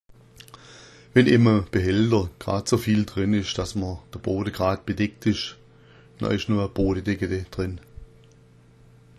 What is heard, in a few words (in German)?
boda deckede, schwaebisch